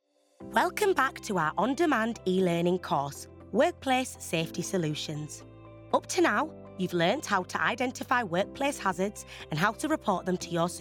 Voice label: Corporate, voiceover; Reel